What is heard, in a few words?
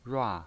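"rua", Mandarin Chinese pinyin voice, fourth tone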